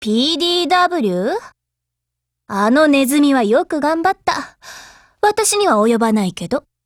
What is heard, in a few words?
DIALOGUE JP